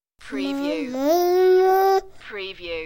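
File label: Toddler Moo Moo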